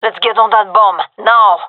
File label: cover defuse, gendermerie fem; vo